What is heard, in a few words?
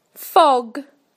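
"fog", British English; PictureDictionary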